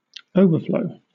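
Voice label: "overflow", British English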